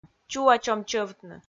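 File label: "чуачом чӧвтны", Komi, female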